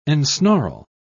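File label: "ensnarl", British English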